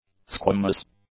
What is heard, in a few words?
"squamous", english; adjectives